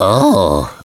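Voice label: "ohh", male; DS; ML BOWSERS INSIDE; Luigi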